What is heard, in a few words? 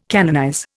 "canonize", Indian